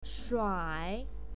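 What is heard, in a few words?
"shuai3", Mandarin Chinese pinyin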